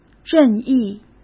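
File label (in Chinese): "任意", Mandarin Chinese; chinese-flashcards; hsk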